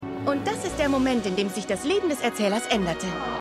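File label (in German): Neue Erzählerin; Schmigadoon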